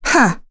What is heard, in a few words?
Vox Deny, kr; heroes; Chrisha; kingsraid